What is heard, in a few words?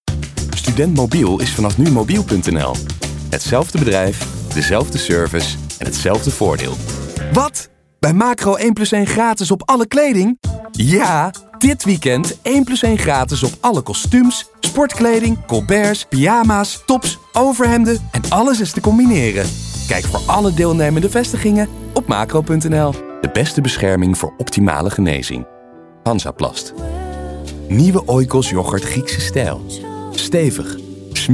Dutch M Commercial; voice over